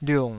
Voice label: "liung3", Taiwanese syllable